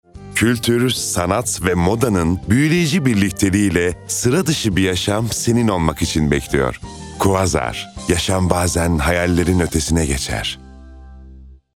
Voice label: duygusal motive, seslendirme

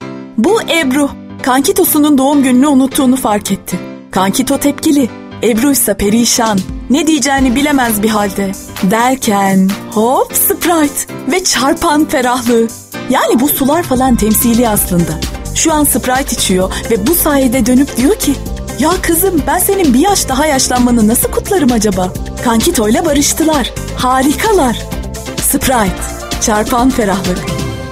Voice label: enerjik esprili

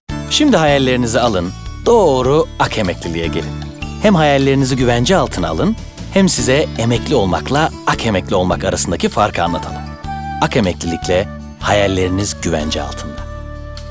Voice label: neseli tempolu; sicak

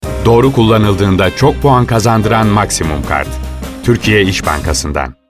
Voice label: kampanya tempolu

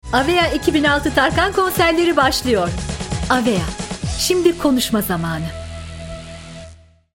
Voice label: kampanya tempolu; seslendirme

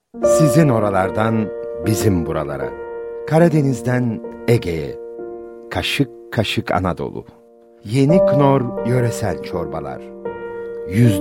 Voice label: sicak samimi

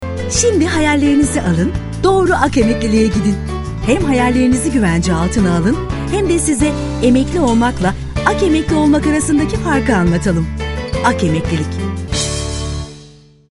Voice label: enerjik tempolu, seslendirme

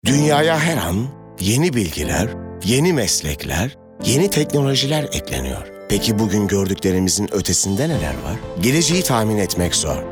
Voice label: tanitim, vokal tonu kurumsal imaj

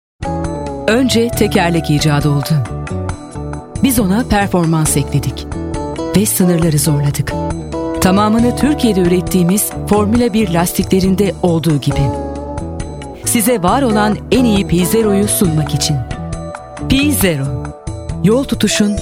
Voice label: kurumsal imaj

